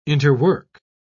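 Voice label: "interwork", British English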